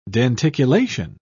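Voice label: "denticulation", British English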